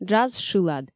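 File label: "джаз шылад", Komi, female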